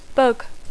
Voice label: "bug", English

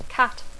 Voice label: "cat", English

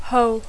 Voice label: "hole", English; forat; esvoranc; clot

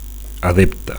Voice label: "adepte", Catalan

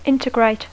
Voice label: "integrate", English

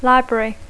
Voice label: "library", English

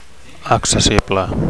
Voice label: "accessible", Catalan; 1. [lloc] accessible

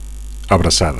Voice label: "abracada", Catalan; hug

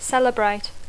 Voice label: "celebrate", English

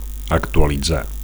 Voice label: "actualitzar", Catalan; [IT] to update; [IT] to upgrade; [IT] to refresh

